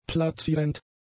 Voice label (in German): "platzierend", German; adjectives